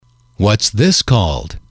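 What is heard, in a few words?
what is this called, male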